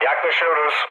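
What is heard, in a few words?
Turkish near waypoint, mood low, speech; War Thunder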